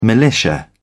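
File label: "militia", British English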